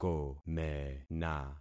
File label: "komena", concat syllables; Affixing experiment